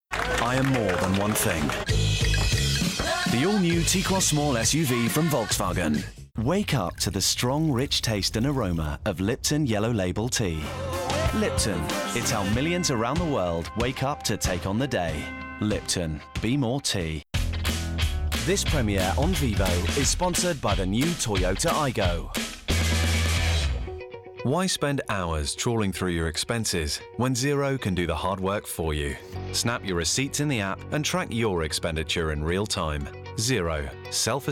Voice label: Commercial